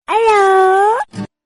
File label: Cute Hello